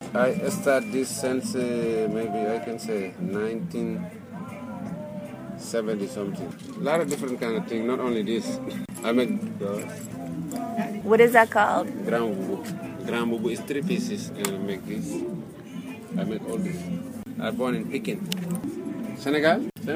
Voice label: French Senegal BM Age 47; France

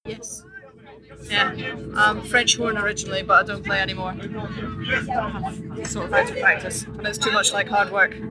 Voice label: Scotland Train To Wick Female 40 monologue

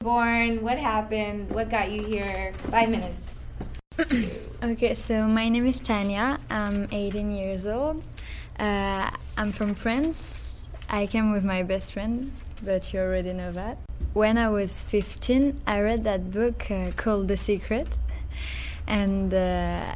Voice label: French Parisian Born Kurdish Female Age 18; France

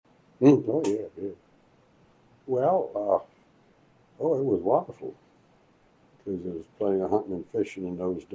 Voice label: USA North Carolina Costal Southern Male 80s

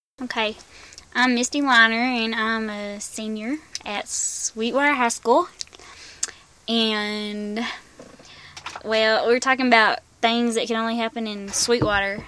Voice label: Sweetwater Texas WF age 17

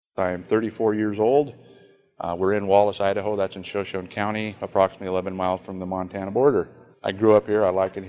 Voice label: Idaho police chief 34 monologue; United States Midwest Dialects

